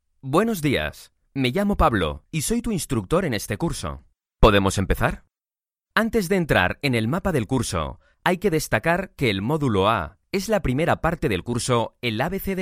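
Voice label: Spanish EU, male, eLearning; voice-over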